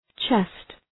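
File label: "chest", English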